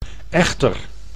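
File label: "echter", Dutch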